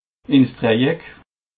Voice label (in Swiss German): "dreieck", alsace